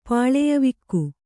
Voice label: "ಪಾಳೆಯವಿಕ್ಕು", Kannada

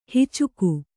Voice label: "ಹೆಚ್ಚಿಗೆ", Kannada

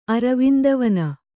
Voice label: "ಅರವಿಂದವನ", Kannada

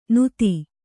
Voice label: "ನೂತಿ", Kannada

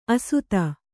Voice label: "ಅಸಾಧು", Kannada

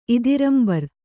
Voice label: "ಇದಿರಂಬರ್", kannada

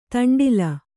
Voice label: "ದಂಡ್ಲ", Kannada

